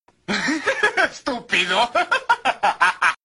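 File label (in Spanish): "estupido", Spanish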